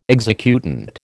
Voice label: "executant", American

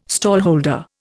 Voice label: "stallholder", Indian

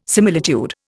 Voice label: "similitude", Indian

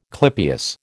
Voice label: "clypeus", American

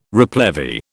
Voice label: "replevy", British

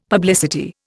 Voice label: "publicity", Indian; Dictionary

